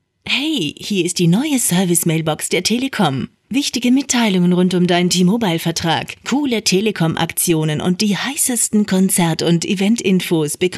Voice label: servicemailbox female